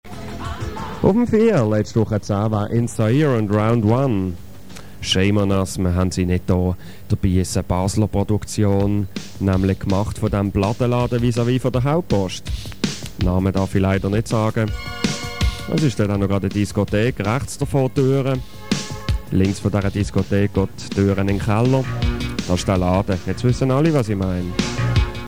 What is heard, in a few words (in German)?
schleichwerbung plattenladen, radio; europa